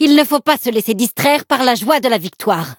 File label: victory, fr